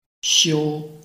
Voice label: "xiu1", Chinese